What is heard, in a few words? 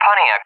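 "Pontiac", English; AWACS callsign; DCS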